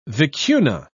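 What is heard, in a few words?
"vicuna", British English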